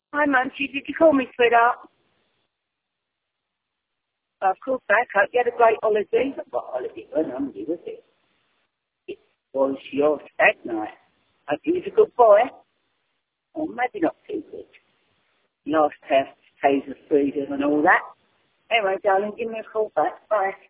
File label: voicemail